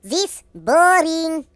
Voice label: Boring, Russian; hedgewars